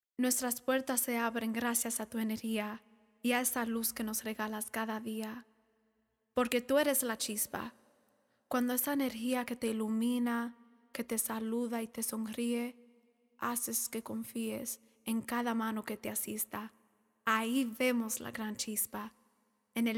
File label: SPANISH